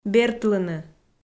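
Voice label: "бертлыны", Komi, female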